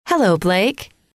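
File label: "hello", female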